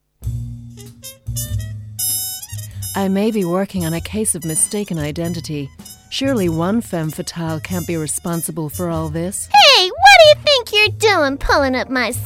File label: animation